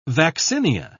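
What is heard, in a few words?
"vaccinia", British English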